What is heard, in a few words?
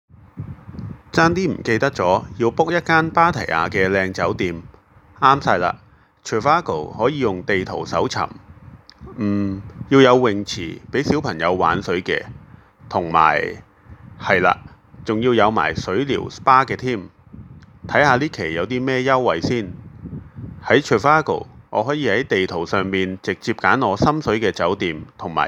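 m Cantonese Mobile Recording, male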